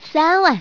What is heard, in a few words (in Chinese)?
"3 wan", 女, 麻将; 欢乐麻将